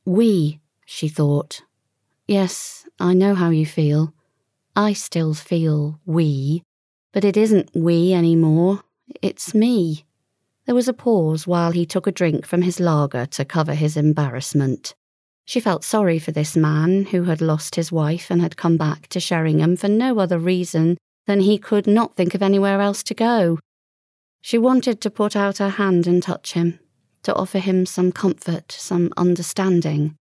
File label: audiobook